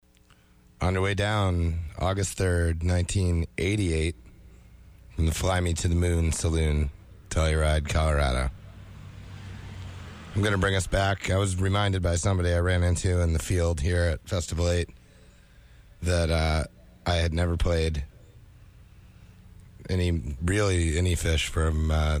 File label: radio banter; Festival 8